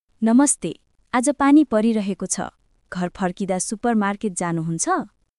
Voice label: Nepali, nepali greeting phrases

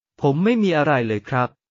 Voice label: Thai, basic Thai phrases

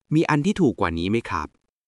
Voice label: Thai, basic thai phrases; タイ旅行で必須の「伝える力」を磨く！カタカナ発音で覚える基本の100フレーズ

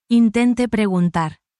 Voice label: spanish, spanish phrases

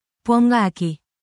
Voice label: spanish, spanish phrases

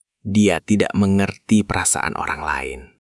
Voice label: indonesian phrases

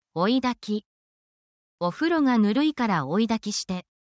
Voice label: japanese home appliance vocab

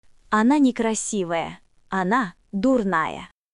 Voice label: Russian, russian phrases insults; ロシア語のネガティブなフレーズ

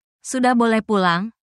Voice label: indonesian phrases

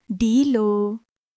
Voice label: Nepali, basic phrases

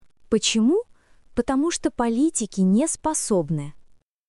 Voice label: Russian, russian phrases insults; ロシア語のネガティブなフレーズ

